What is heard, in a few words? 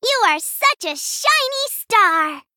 Female Gnome Well Played